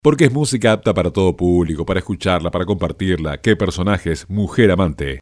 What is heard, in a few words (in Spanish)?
Personajes - Mujer Amante (Off)